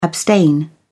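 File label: "abstain", British English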